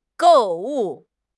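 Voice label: "gou4 wu4", Mandarin Chinese; HSK4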